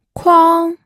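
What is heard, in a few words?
"kuang", first tone, pinyin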